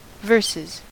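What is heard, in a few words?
"verses", American English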